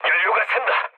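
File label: Korean tank damaged mood low, speech; War Thunder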